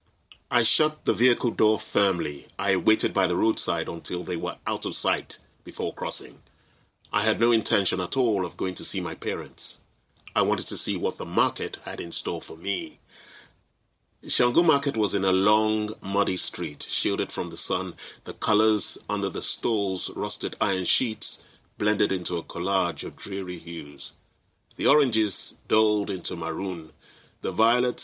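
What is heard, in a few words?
English UK General; GoLocalise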